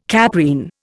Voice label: "caprine", Indian